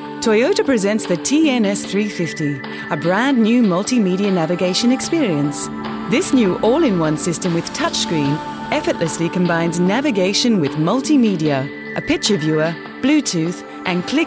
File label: Mid Atlantic VO